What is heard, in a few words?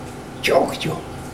dont run, mokilese